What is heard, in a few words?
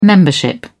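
"membership", British English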